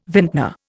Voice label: "vintner", Indian